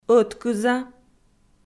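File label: "ӧткыза" Komi, female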